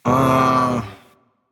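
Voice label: "seriously", male; smite; olorun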